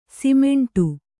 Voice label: "ಸಿಮೆಂಟು", Kannada

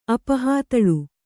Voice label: "ಅಪಹಾತಳು", Kannada

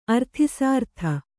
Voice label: "ಅರ್ಥಿಸಾರ್ಥ", kannada

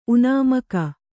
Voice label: "ಉನಾಮಕ", Kannada

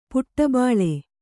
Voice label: "ಪುಟಬಾಳೆ", Kannada

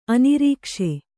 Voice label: "ಆನೆರಕ್ಕಸ", Kannada

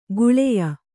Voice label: "ಘಳಿಯ", Kannada

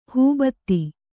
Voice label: "ಹೂಬತ್ತಿ", kannada

